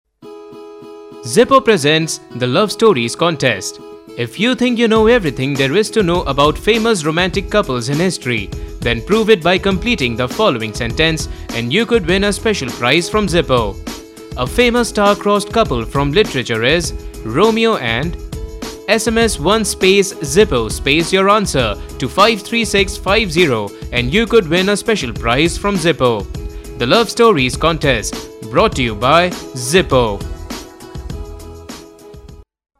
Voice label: ENGLISH NEUTRAL, male voice; Zippo Contest